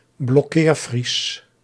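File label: "blokkeerfries", Dutch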